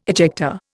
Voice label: "ejector", Indian

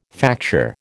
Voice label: "facture", American

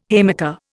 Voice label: "haymaker", Indian

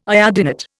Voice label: "iodinate", Indian

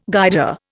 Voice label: "Guider", Indian

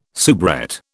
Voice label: "soubrette", British

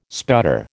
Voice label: "sputter", American

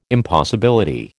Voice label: "impossibility", American

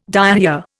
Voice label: "diarrhoea", Indian

